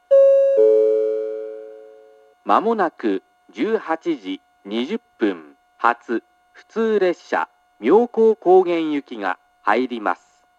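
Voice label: track 1 approach advance notice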